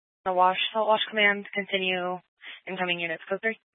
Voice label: Incident Tac 1, scanner